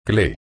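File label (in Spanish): "cle", Spanish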